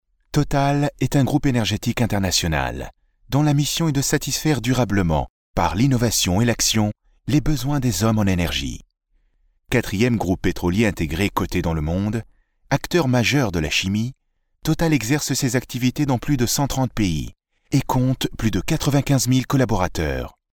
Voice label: male french voice over upbeat, france

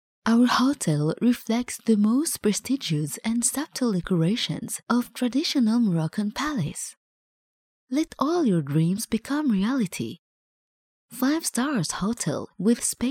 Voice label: female arabic voice over english

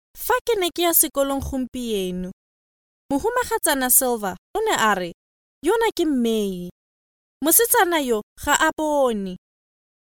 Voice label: female tswana voice over narrative

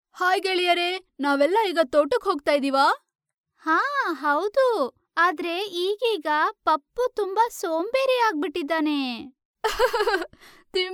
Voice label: female kannada voice over character

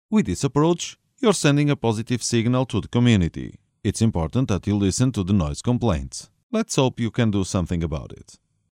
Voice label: male portuguese voice over talent english; portugal

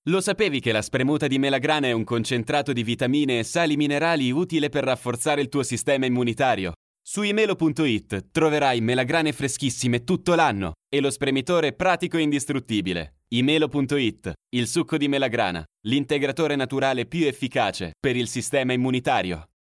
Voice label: male italian voice over talent upbeat

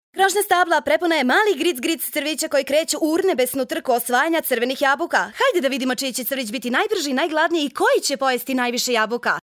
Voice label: female serbian voice over character

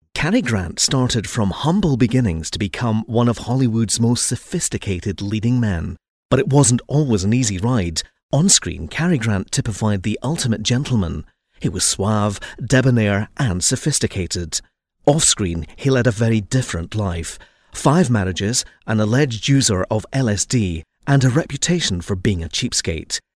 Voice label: male scottish voice over talent upbeat

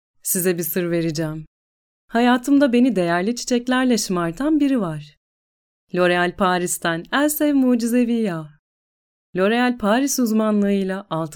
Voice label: female turkish voice over character

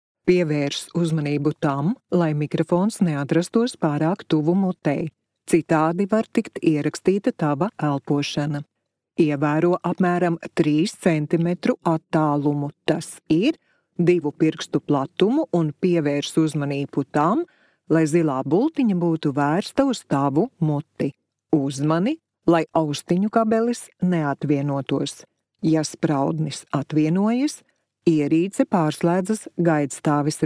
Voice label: female latvian voice over narrative

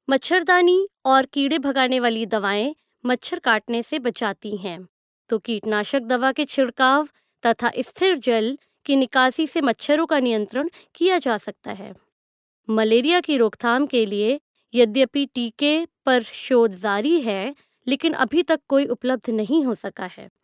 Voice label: female hindi voice over narrative

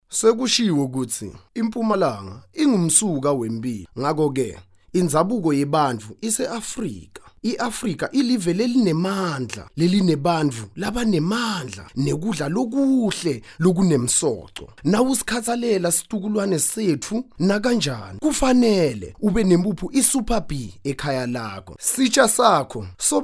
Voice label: male swazi voice over talent narrative

